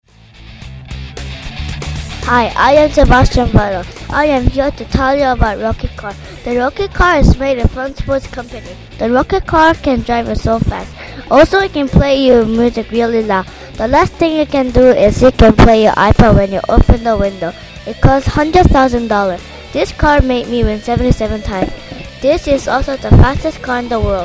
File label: radio voice